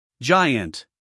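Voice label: "giant", American English, male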